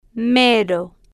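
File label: "mero", Spanish, female, slow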